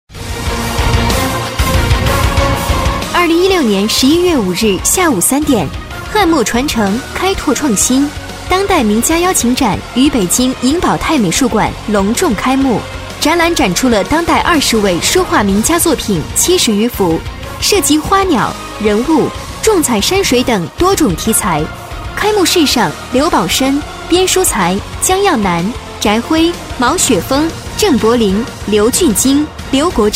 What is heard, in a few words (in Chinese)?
女7-广告-开幕书画艺术展, 女